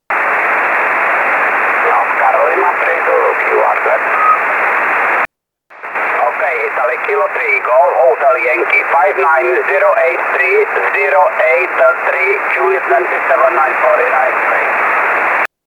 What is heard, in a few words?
1296 SSB